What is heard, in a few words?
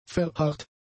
"verharrt", German; adjectives